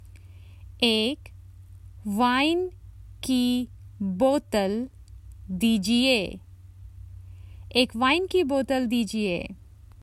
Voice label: Common Hindi Phrases for travel A bottle of wine please